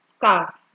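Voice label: "scarf", Nigerian Pidgin, female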